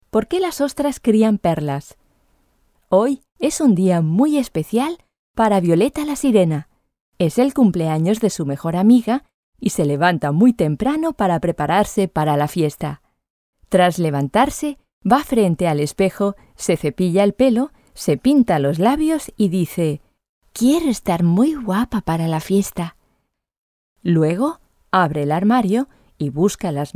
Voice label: fairytale sirenas, es